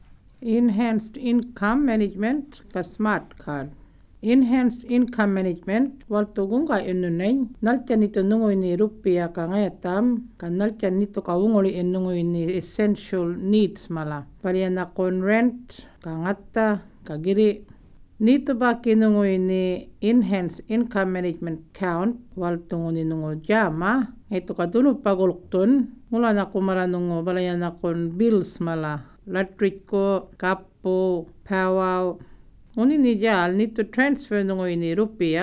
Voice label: yolngu matha; eim and smartcard

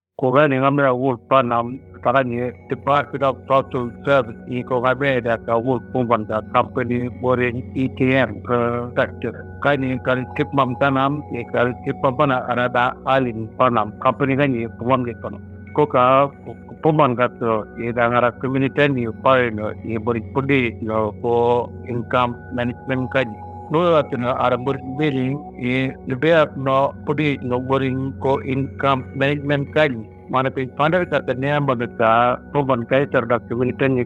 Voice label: Murrinh-Patha; Income Management Consult

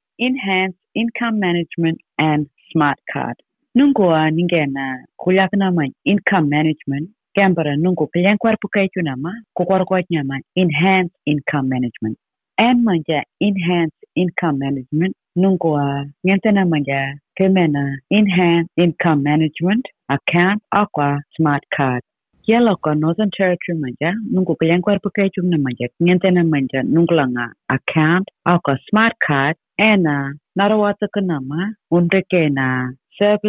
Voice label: anindilyakwa; eim and smartcard

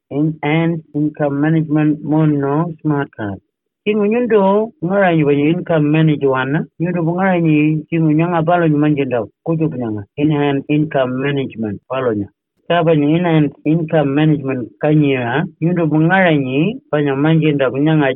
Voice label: pitjantjatjara; eim and smartcard

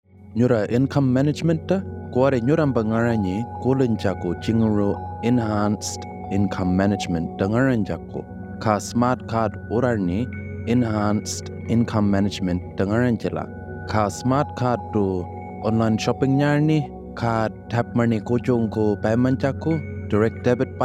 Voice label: pitjantjatjarra; enhanced income management

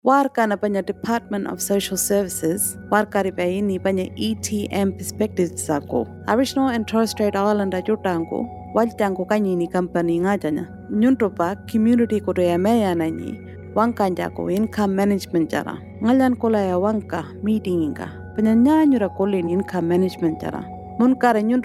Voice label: Pintupi Luritja; Income Management Consult

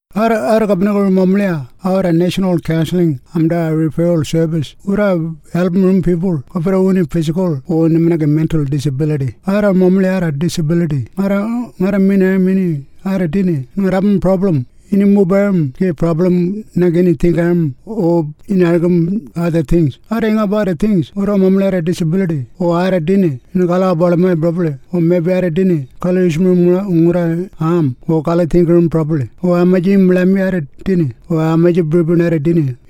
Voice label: tiwi

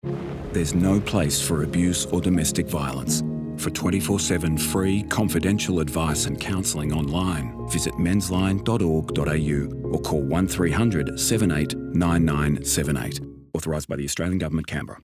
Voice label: radio; mensline

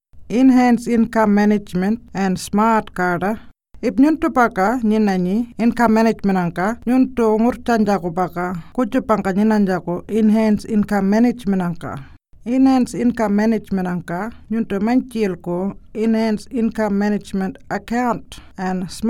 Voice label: pintupi; eim and smartcard

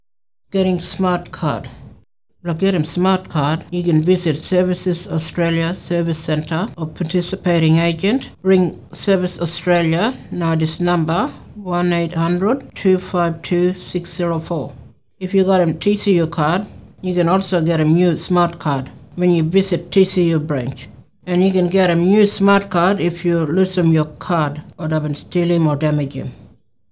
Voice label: kriol getting a smartcard

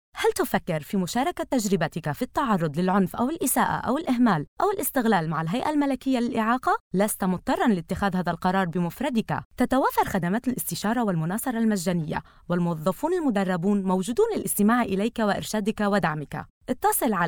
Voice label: arabic; dss disability

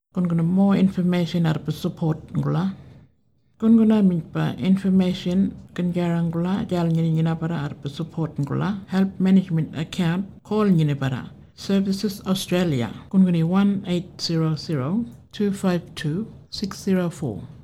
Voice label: burarra more information and support

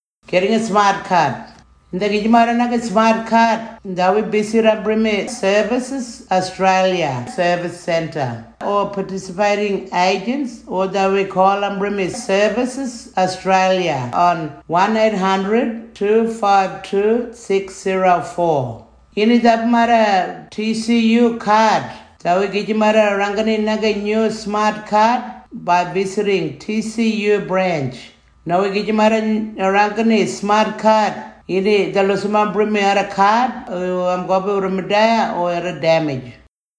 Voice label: tiwi; getting a smartcard

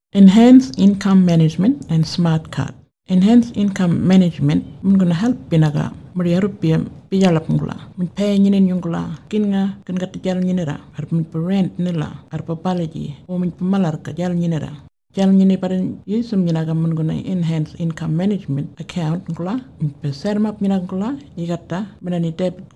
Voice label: burarra; eim and smartcard

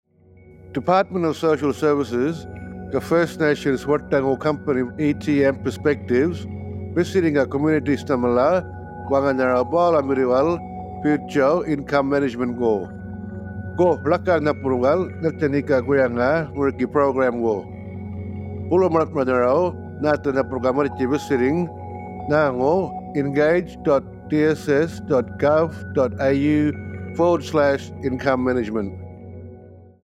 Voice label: Yolngu Matha; Income Management Consult

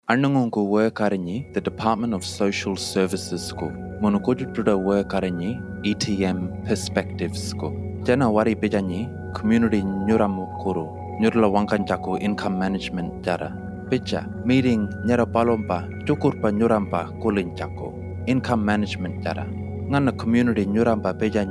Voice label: Income Management Consult Pitjantjatjara

